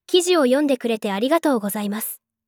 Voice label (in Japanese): 女性